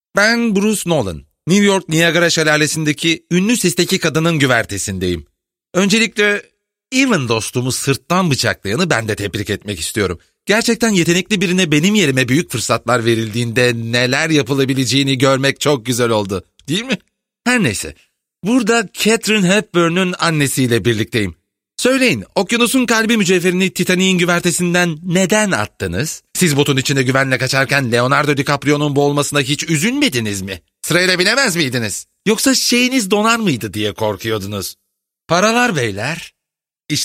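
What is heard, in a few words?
Turkish, male, character; voice-over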